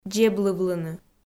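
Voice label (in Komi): "дзеблывлыны", Komi, female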